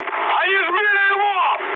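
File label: Russian aircraft destroyed mood high, speech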